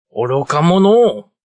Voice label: You're Stupid Jap, Attitude, Male; VoiceBox